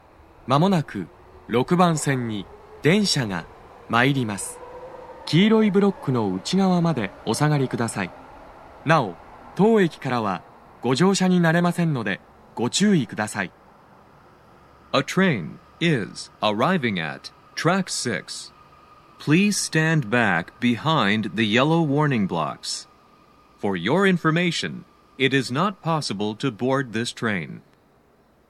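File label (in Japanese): 6番線 接近放送 男声, 大手町駅; 放送は「特急ロマンスカー (降車専用)」です。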